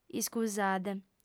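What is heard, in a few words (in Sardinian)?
"iscusade", female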